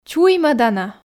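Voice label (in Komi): "чуймӧдана", Komi, female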